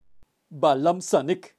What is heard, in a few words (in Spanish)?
"balam sanik", kaqchikel, pronunciacion de animales